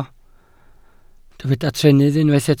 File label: "trennydd", Welsh